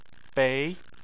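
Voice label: "bei" Chinese, second tone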